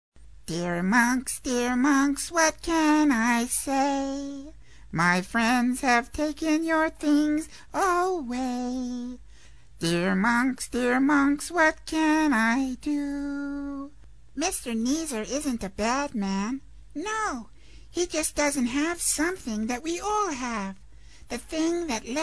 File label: ELF - Child-like; animation